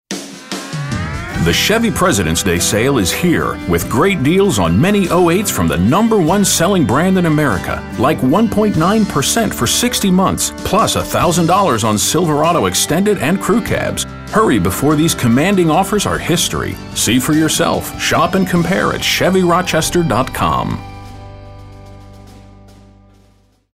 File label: Folksy